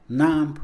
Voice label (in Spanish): "ruido", Mixe, hombre; Percepción